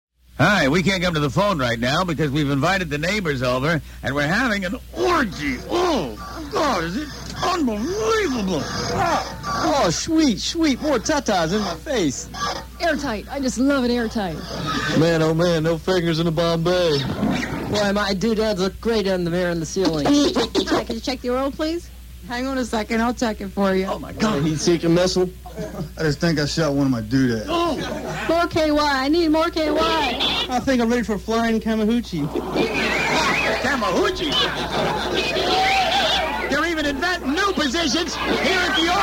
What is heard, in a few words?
Answering Machine Message Using The Crowd